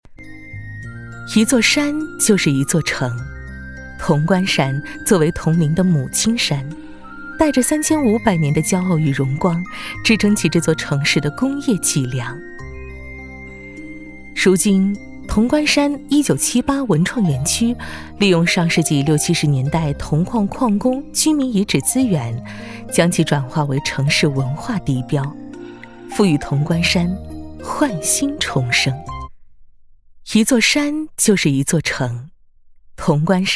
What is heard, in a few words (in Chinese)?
女 宣传片 讲述; 铜官山